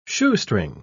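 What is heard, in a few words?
"shoestring", English word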